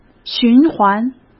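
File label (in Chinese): "循环", Mandarin Chinese; hsk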